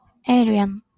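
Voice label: "alien", Japanese, female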